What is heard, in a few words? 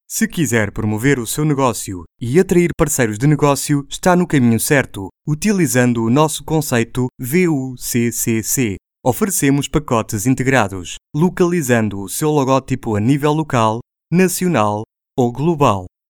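male Portuguese; Portugal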